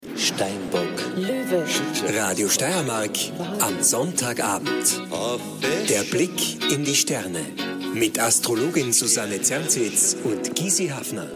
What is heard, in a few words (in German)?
Radio Start Sendung; Radio Stmk